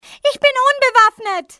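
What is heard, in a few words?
unarmed, female; XBOX; civ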